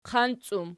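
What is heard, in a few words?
"qanci" plural, Archi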